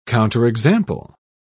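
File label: "counterexample", British English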